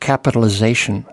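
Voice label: "capitalization", US English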